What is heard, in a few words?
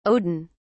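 "odin", British English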